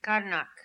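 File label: "Karnak", pronunciations; Fourth Way; Beelzebub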